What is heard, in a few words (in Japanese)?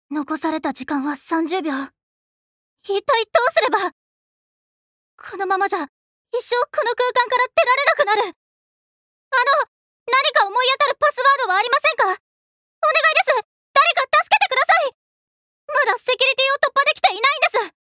アニメ